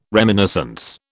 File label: "reminiscence", British